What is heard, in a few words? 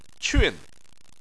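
"qun", Chinese, tone 4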